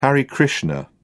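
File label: "hare krishna", British English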